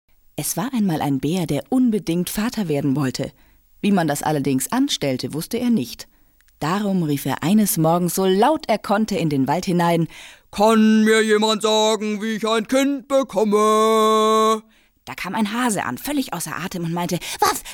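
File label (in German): Hörspiel; LOFT VOICES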